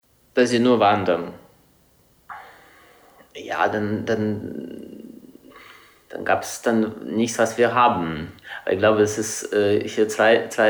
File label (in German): russisch